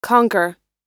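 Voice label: "conquer", American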